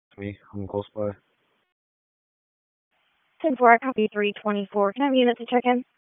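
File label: Raleigh Police Northeast District Dispatch, p25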